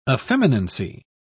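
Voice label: "effeminacy", British English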